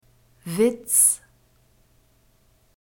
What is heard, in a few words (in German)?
"witz", German; alphabet